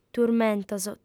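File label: "turmentos", Sardinian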